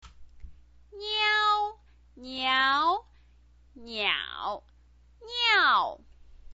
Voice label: "niao", chinese pinyin